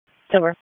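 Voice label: Police Southwest District Disp, radio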